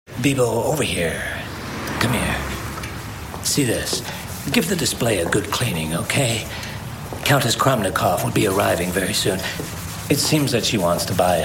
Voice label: character vo extracts